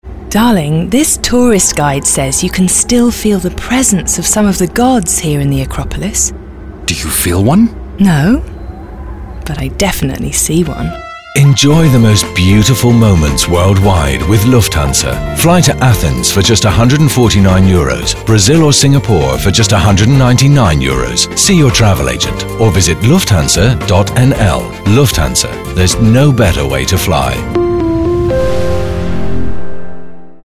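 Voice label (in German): British Werbung, englisch; sprecher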